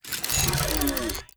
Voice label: robot mammalian greetings; Stellaris; synthetic dawn

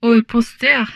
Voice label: humanoid female; Stellaris

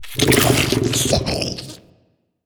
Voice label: portrait synthetic; Stellaris; machineage

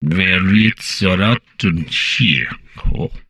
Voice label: humanoid portrait male; Stellaris; humanoids

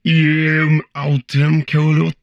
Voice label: humanoid portrait male; Stellaris; humanoids

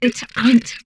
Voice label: humanoid female; Stellaris; humanoids

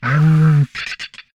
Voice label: humanoid portrait male; Stellaris; humanoids

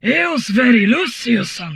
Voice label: humanoid portrait female; Stellaris; humanoids

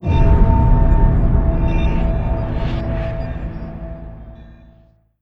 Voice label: sfx galactic emperor greeting; Stellaris; nemesis

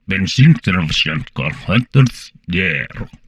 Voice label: humanoid portrait male; Stellaris; humanoids

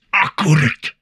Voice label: humanoid portrait male; Stellaris; humanoids

